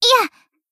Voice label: Battle Shout; Kotama; Camping

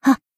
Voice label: Battle Shout; Hina

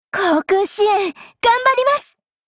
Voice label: battle warcry

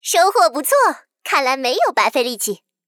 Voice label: happy

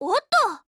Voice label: MOOD JP; Type82S

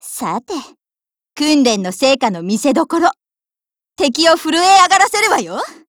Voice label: warcry

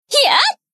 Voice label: Battle Shout; Aru

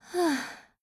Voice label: LOW MOOD JP

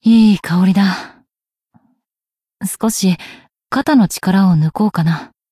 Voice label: Cafe monolog; BA; Mina

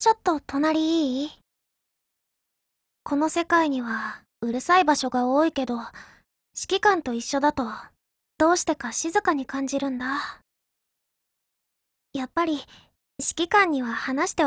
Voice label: DIALOGUE WEDDING, JP; CZ100

